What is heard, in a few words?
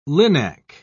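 "linac", British English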